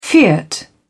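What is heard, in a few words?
"fiat", English, British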